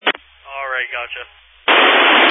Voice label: Family Radio Service (FRS)